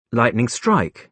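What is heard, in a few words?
"lightning strike", British English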